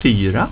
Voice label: "fyra", swedish; getal

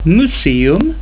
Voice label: "museum", swedish; plaatsen

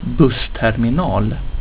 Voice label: "bussterm", swedish; reizen

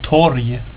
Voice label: "torg", swedish; plaatsen

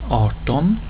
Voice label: "arton", swedish; getal

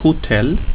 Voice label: "hotell", swedish; reizen

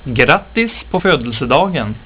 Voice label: "grattis pa fodelsedagen", swedish; tijden

